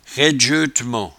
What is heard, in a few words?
"raidjeutement", patois jura